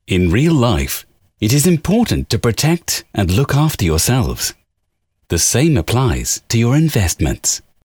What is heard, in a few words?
UK corporate